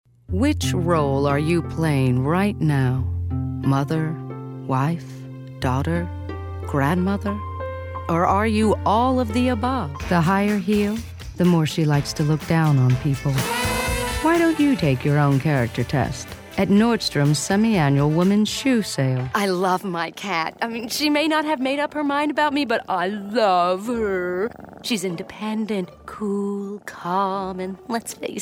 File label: Commercial